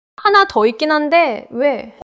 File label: neutral, monologue